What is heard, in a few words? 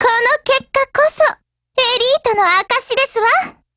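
WIN, Japanese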